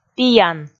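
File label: "пиян", Komi, female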